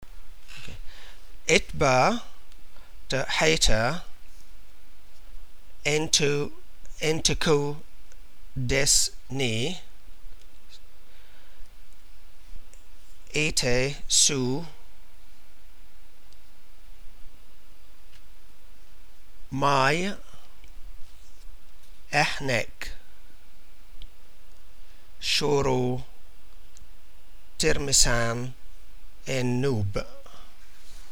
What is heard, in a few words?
coptic